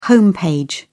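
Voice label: "home page", British English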